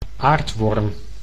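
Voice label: "aardworm", Dutch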